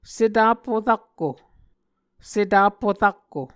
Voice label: "setapho rakko", Muscogee